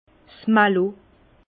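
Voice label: "malo", Alsatian, Bas Rhin, fermentation malo-lactique; vin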